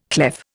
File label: "clef", Indian